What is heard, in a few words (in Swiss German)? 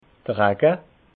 "raga", alsace